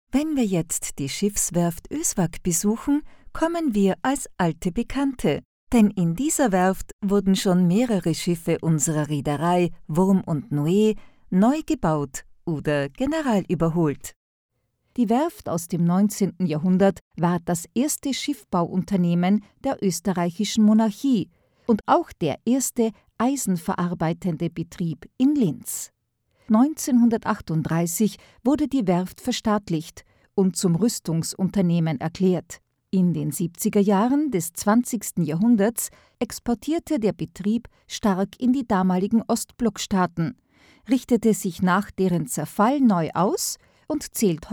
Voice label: audioguide; Die Linzerin

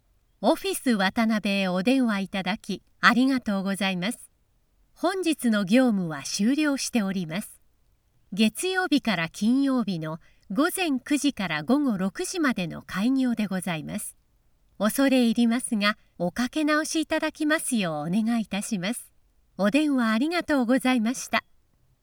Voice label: Call answering

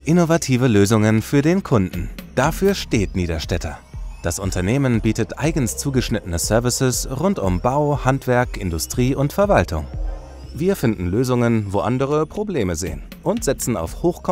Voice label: GER Compilation Image Mood Industry Film

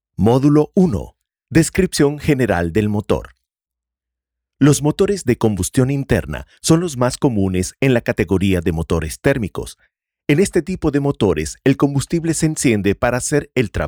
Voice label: Educational VR Automotive Training SP

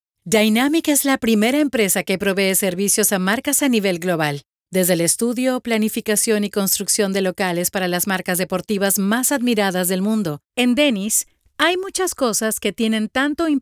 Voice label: Corporate

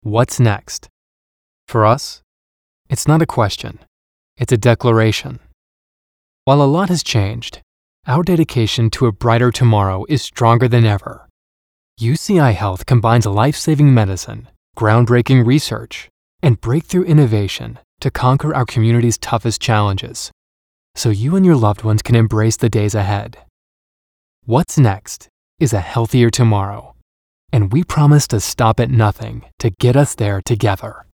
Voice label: Heartfelt

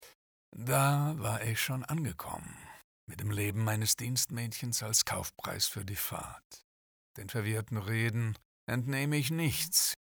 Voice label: NARRATOR

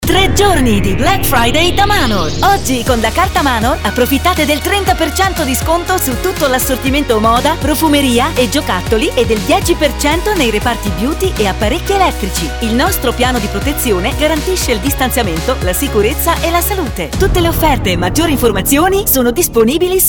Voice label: ITA Black Friday; Manor; Freitag

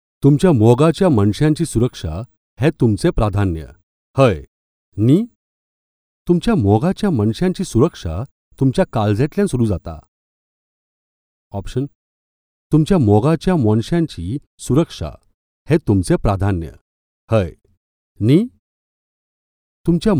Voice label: KONKANI Voice

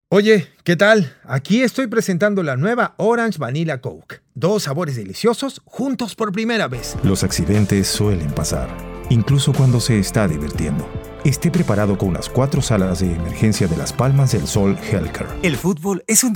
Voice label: Peru Commercial

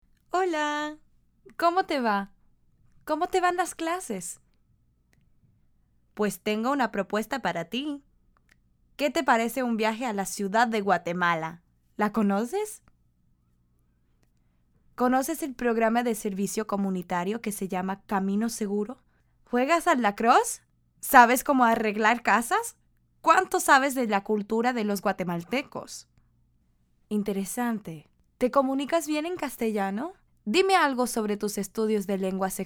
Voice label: Youth Joven Conversation Spanish

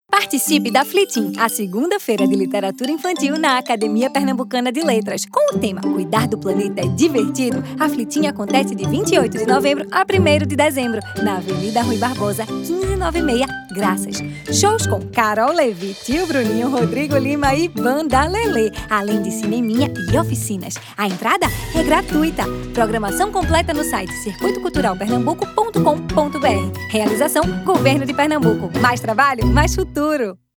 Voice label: Spot; GOV-PE; FLITIN 2019

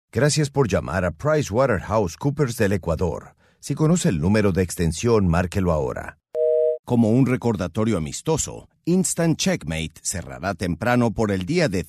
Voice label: PHONE IVR SPA

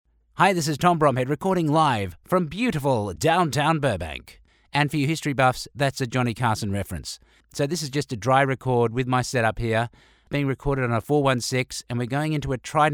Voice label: Studio Sample

